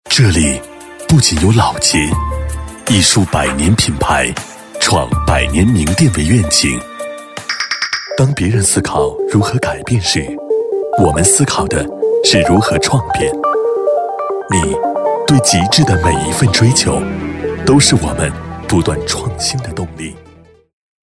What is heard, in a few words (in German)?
telefonansagen sprecher cn; Native Speaker